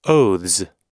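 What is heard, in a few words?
"oaths", American English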